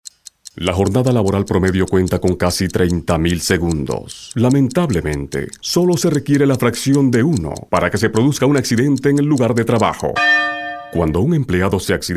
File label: INSTITUTIONAL